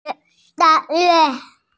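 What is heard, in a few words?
"da4yue1" Mandarin Chinese, female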